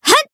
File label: Voice attack jp